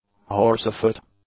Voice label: "horsefoot", English; nouns